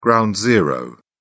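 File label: "ground zero", British English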